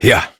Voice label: Horse Start Adult, Dialog; Duviri